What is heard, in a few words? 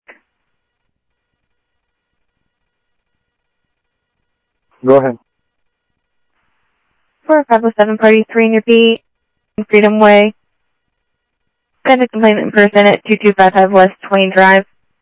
South Scottsdale Sheriff, scanner audio